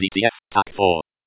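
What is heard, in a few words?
DTF Tac 4, Computer Voice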